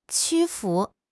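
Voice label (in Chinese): "屈服", Chinese; HSK6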